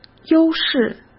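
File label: "优势", Mandarin Chinese; chinese-flashcards; hsk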